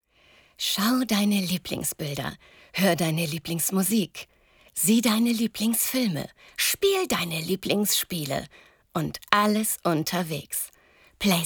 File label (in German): WERBUNG freundlich